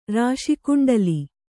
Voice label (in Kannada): "ರಾಶಿಕುಂಡಲಿ", Kannada